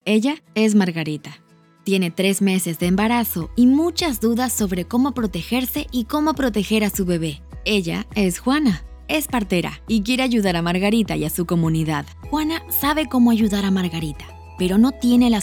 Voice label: female argentinian neutral spanish latam corporate compilation